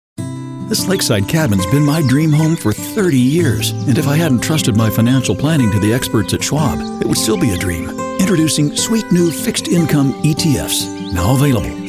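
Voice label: American English, Commercial; voice demos; GoLocalise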